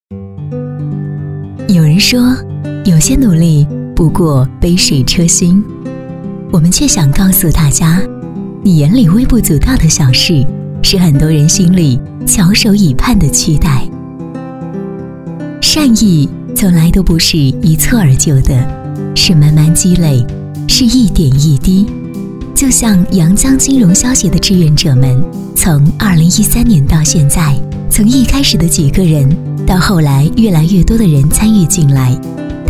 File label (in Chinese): 专题 音乐; 普及金融知识; 广告 > 公益广告